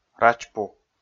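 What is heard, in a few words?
"рач пу", Komi, male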